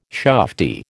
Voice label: "shufti", American

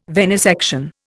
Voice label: "venisection", Indian

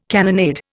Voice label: "cannonade", Indian

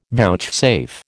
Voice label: "vouchsafe", American

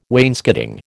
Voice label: "wainscoting", American

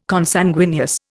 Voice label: "Consanguineous", Indian

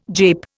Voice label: "jape", Indian

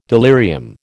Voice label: "delirium", American

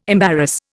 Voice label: "embarrass", Indian

